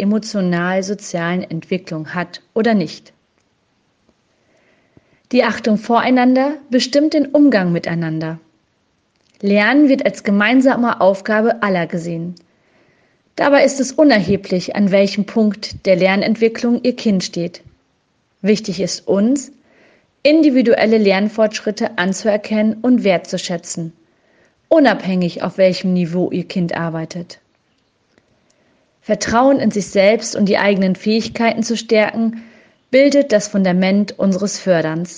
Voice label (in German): normale Sprache